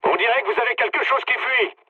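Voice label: French tank damaged mood low; War Thunder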